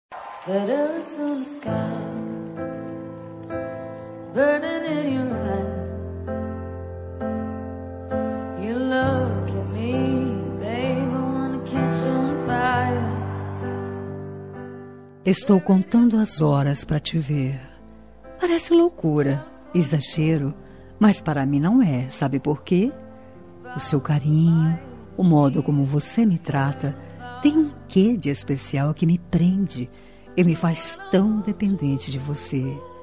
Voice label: dia dos namorados distante, voz feminina; dia dos namorados para namorado distante

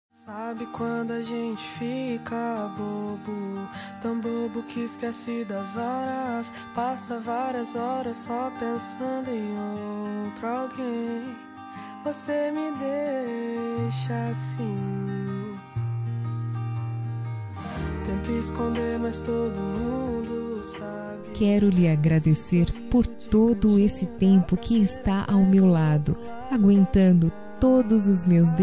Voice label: aniver fem, Telemensagem de Aniversário de Casamento Romântico – Voz Feminina; Telemensagens para todas as ocasiões

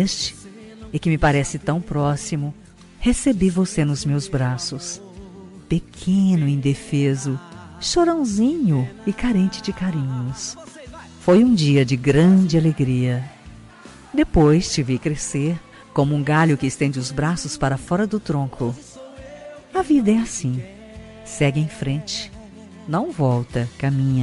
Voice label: afilhado fem; Aniversário de Afilhado – Voz Feminina; Telemensagens para todas as ocasiões

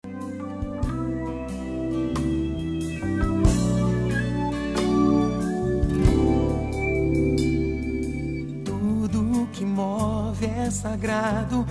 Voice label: amizade fem, Telemensagem Amizade – Voz Feminina

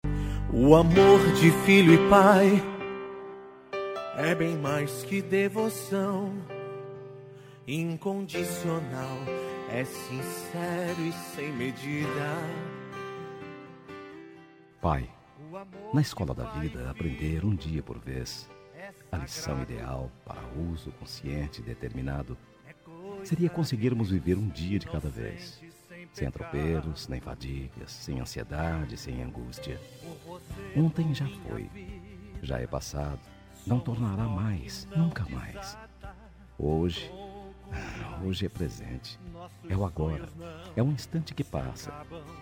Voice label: masc, Dia dos Pais – Voz Masculina

